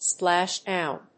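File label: "splashdown", English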